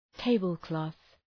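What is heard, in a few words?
"tablecloth", English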